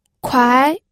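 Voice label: "kuai", second tone, pinyin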